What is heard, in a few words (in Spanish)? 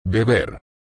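"beber", Spanish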